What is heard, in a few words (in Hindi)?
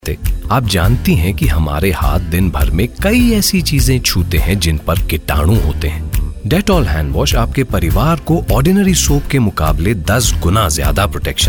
promo hindi